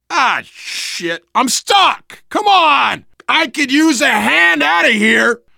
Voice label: call for rescue, survivor voice biker; Left 4 Dead 2